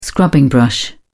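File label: "scrubbing brush", British English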